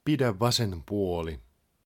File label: Keep Left, Finnish, male; Opas